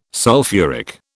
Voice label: "sulphuric", British

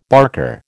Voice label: "barker", American

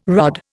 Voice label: "rod", Indian

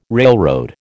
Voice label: "railroad", American

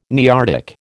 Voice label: "Nearctic", American

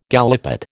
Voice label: "gallipot", American

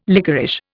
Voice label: "lickerish", Indian

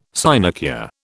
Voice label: "sinecure", British

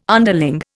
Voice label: "underling", Indian

